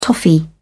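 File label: "toffee", British English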